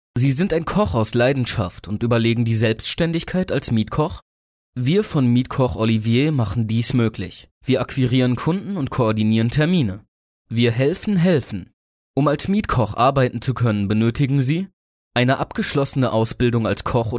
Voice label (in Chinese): 德语男声; 外籍